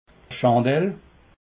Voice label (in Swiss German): "chandelle", alsace